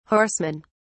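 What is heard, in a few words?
"horsemen", British English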